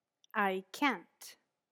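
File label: "I cant", English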